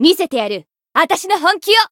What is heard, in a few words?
Voice variable chant, jp